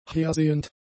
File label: "hersehend", German; adjectives